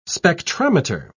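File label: "spectrometer", English, British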